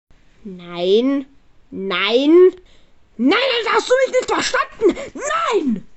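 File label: "nein", German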